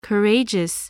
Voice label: "courageous", voice spelling